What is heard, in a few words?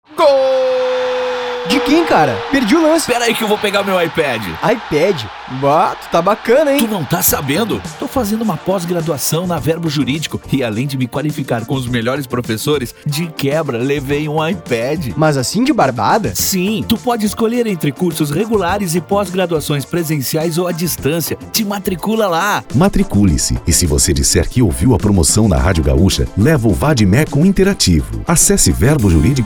Spot